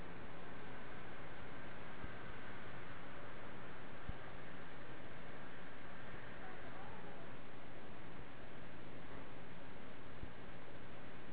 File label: Childs voice thats old